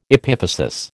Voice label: "epiphysis", American